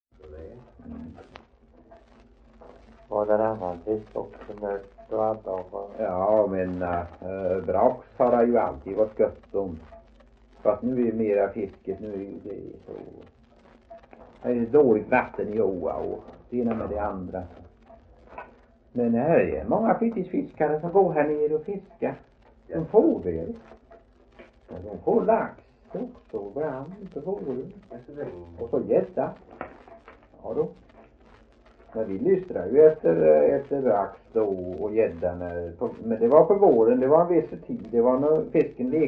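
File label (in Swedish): Veddige Halland, Svenska sagor dialekter; Folkminnen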